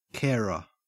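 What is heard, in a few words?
"carer" English, Australian accent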